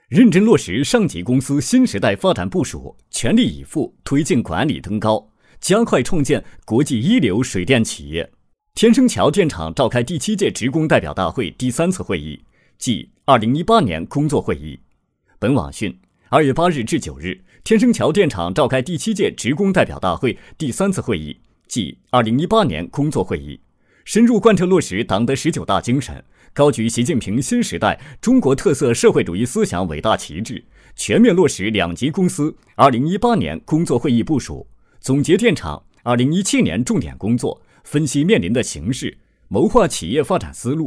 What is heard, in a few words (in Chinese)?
男 新闻; 天生桥电厂